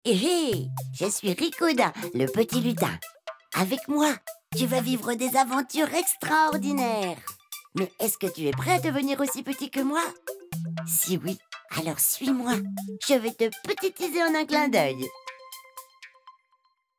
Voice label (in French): Personnage Lutin